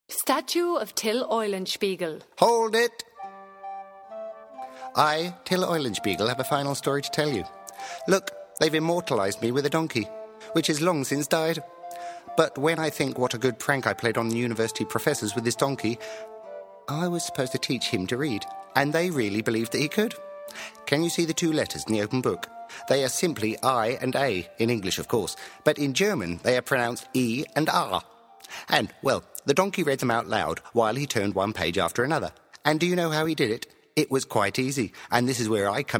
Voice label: character voice; voiceovers; Til Eulenspiegel; He’s versatile and has worked for cartoons, jingles and adverts.